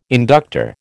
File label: "inductor", American